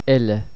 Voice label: "eile", ireland; Monophtongs

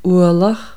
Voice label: "uallach", ireland; Monophtongs

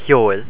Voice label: "ceoil", ireland; Monophtongs

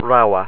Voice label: "rogha", ireland; abh, amh, ogh; Monophtongs

